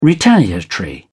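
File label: "retaliatory", English, British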